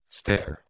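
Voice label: "steh2ur", Proto-Indo-European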